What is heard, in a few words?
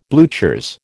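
"bluchers", American English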